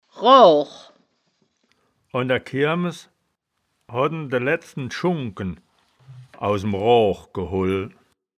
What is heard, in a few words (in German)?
"roach", wittlicher woerterbuch; das wittlicher woerterbuch; woerterbuch vertonung